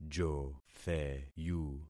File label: "jotheyu", concat syllables; Affixing experiment